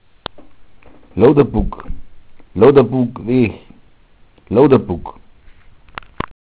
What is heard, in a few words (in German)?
"luder", dialekt